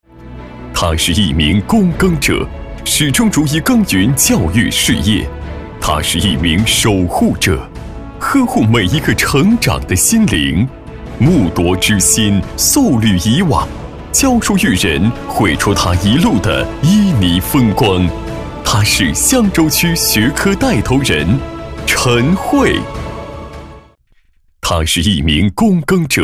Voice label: 男 颁奖

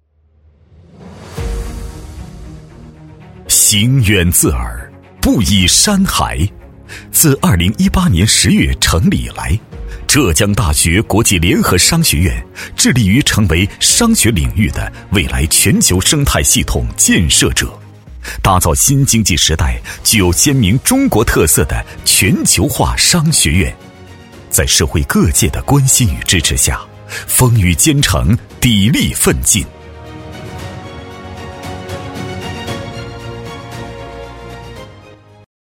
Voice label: 男; 浙大商学院

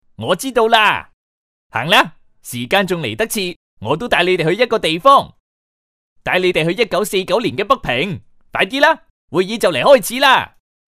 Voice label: 粤男 角色

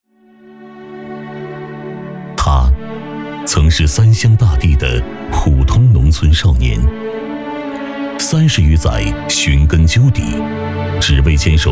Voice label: 男 人文; 寻根问祖

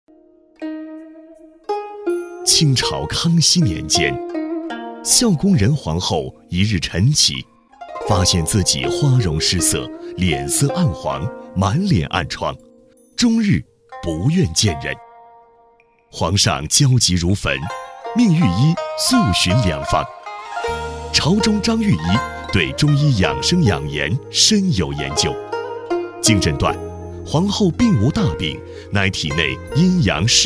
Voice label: 男, 宣传 低沉

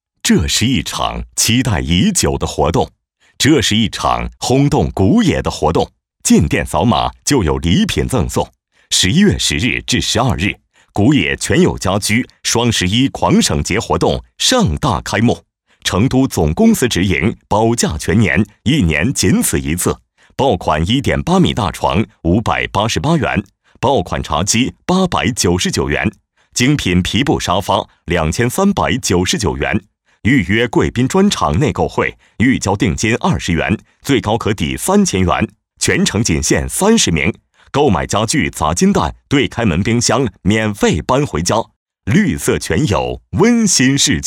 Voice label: 男【广告】; 全友家居

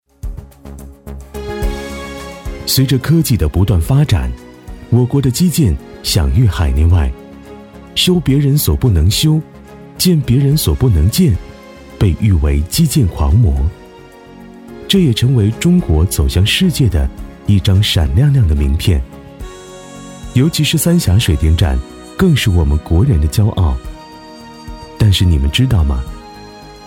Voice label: 男 专题; 白鹤滩水电站

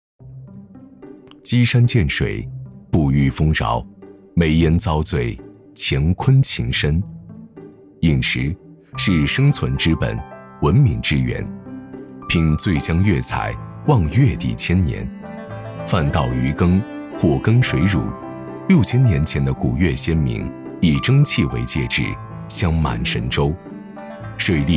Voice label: 男; 舌尖; 绍兴

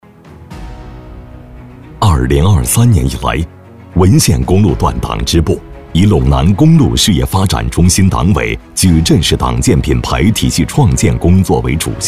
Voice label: 男 宣传片; 文县

